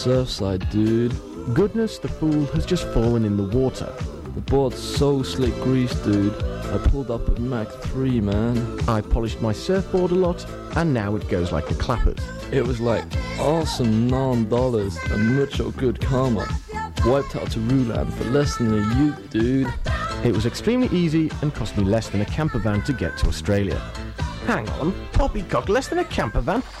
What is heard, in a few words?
campus advert; nsr 1999; usit